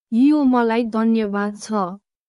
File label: Nepali, thank you in nepali